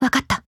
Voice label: voice, JP; Vector

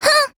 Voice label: LOW MOOD JP; BossArchitect

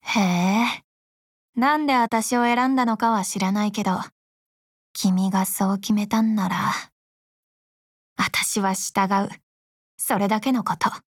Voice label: OATH JP; groove

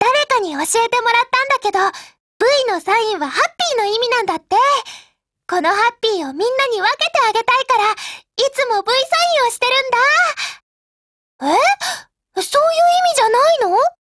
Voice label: DIALOGUE, JP

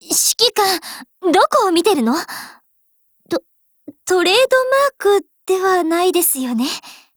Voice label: dialogue, JP; AR15

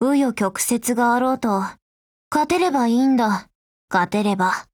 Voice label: WIN JP; VHS

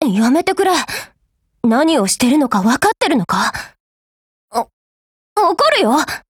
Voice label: DIALOGUE JP; NZ75

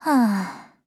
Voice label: LOWMOOD JP; nora

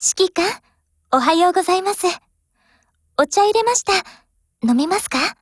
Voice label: HELLO JP; 64typeMod

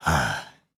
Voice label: LOWMOOD JP

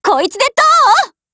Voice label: ATTACK JP; SKS

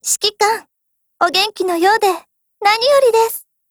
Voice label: HELLO JP

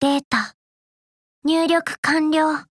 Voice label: LEVEL UP JP; zion

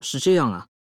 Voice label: AGREE CN

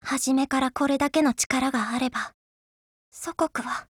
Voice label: JP; FAMAS; FEED

